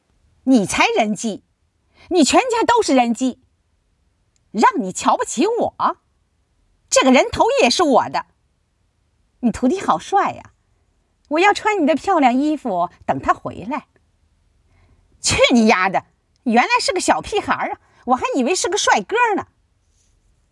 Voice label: 女生角色

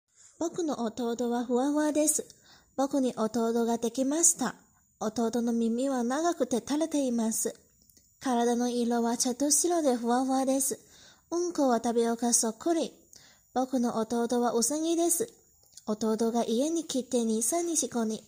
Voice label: 日语会话

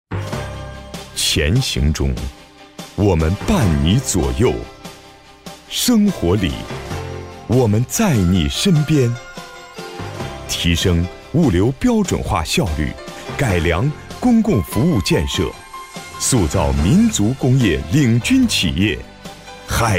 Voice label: 男低沉有力的嗓音专题解说; 海天

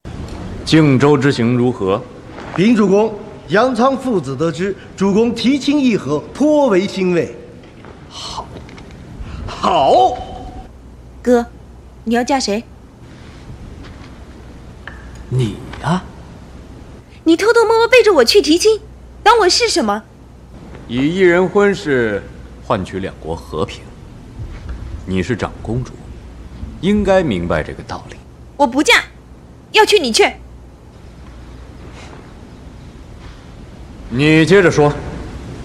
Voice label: 影视配音

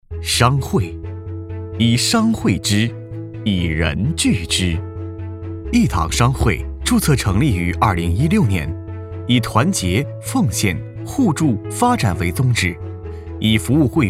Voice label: 企业宣传; 义堂商会宣传片志聚一堂敢创未来

